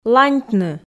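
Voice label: "ланьтны", Komi, female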